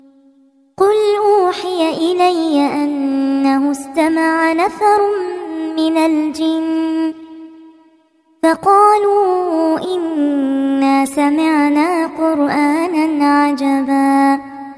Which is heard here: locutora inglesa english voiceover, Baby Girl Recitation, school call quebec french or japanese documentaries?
Baby Girl Recitation